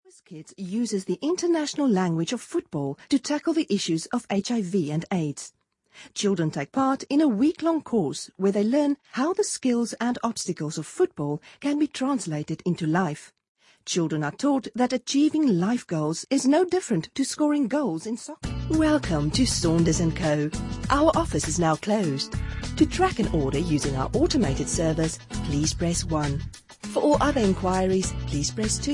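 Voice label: South African English, Corporate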